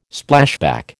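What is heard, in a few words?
"Splashback", American English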